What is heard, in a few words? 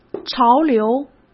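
"潮流", Mandarin Chinese; chinese flashcards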